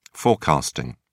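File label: "forecasting", en, uk British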